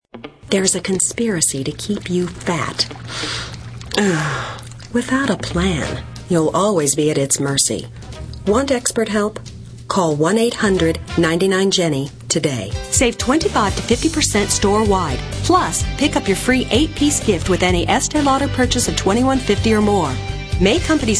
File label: female, late30s early40s clean